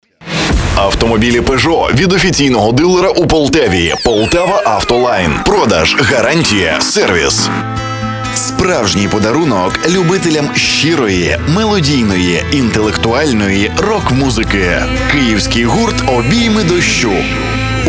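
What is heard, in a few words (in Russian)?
голоса, Ukrainian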